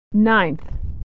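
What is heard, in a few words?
"nineth", American English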